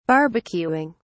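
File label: "barbecuing", British English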